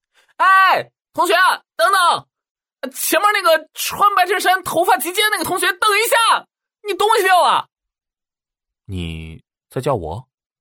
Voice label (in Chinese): 男 人物角色 18岁大一男生和高冷男神2个角色; 男B23-全能型; 素人自然